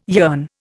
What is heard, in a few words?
"yearn", Indian